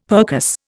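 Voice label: "percuss", Indian English; Dictionary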